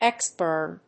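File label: "X burn", English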